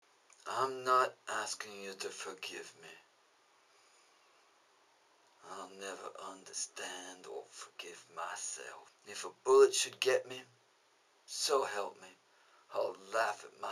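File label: US English Southern Old South Aristocrat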